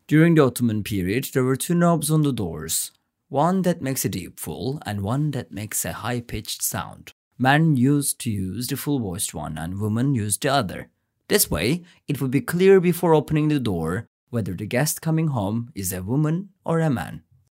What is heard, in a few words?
english